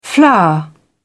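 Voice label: "flour", British English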